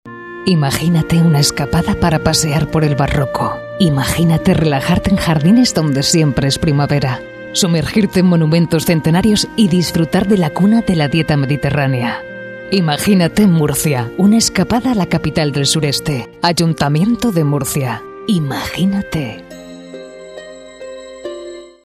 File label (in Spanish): locutora; AYTO MURCIA; FITUR